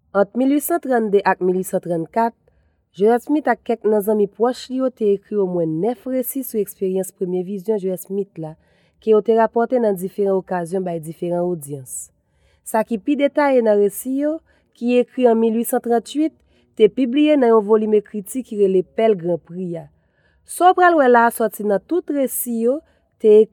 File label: Haitian Creole F